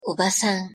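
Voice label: pronunciation "obasan", Japanese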